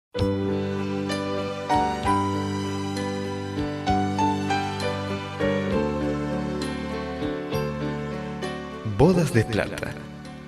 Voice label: Buenos dia romántica M; Variadas em Espanhol; Masc

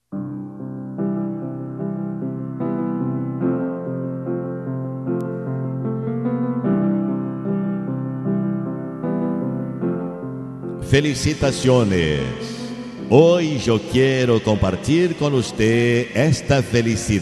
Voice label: Mama Masculino; Variadas em Espanhol

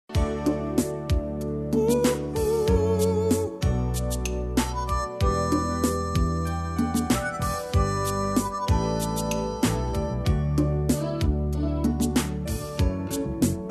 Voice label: Yo te Quero Mucho Feminino, Espanhol; Variadas em Espanhol

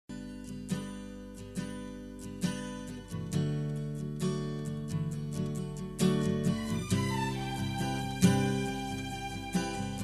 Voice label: Disculpa Feminino, Espanhol; Variadas em Espanhol

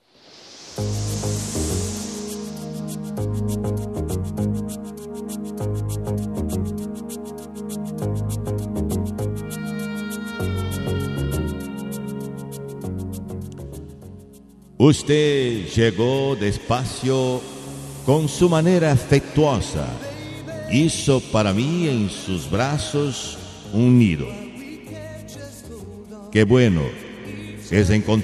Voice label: Yo te Quero Mucho Masculino; Variadas em Espanhol

